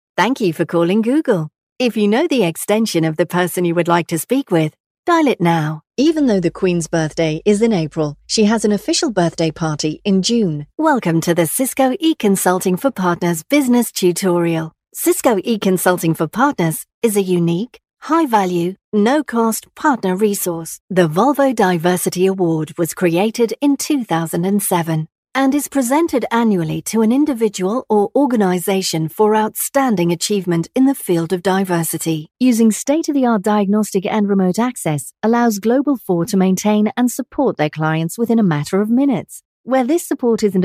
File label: on hold in store mixed